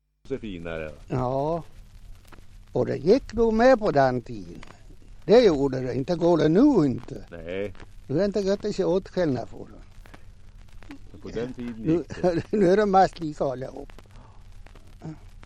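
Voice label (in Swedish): Hed Västmanland, dialekter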